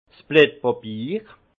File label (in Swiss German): "blat babiir", alsace; vin; feuille de papier